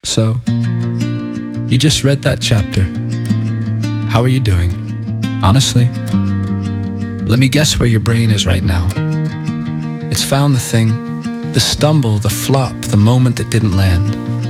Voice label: Male